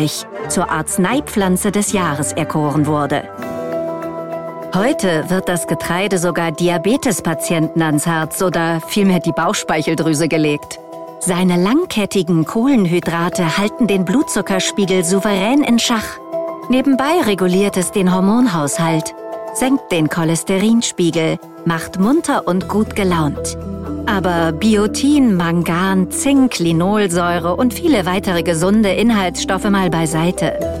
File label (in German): Imagefilm Hafer